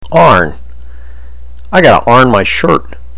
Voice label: "arn", baltimorese